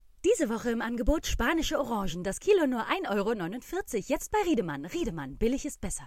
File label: commercial, german, female